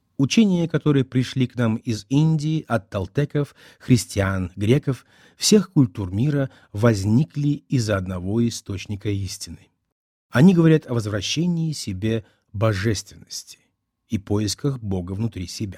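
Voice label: Russian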